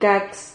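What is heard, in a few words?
"cax", Spanish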